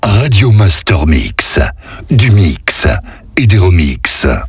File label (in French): Mix et remix Slow, Voix off; Radio Master Mix; Habillage